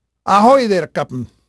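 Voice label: Spanish male, voice; Pirates Of The Caribbean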